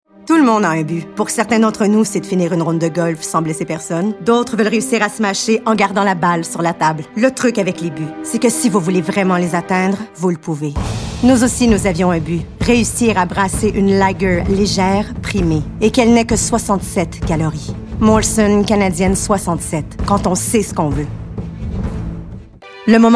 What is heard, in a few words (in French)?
Annonceur